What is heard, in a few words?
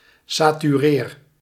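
"satureer", Dutch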